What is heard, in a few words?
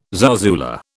"zarzuela", British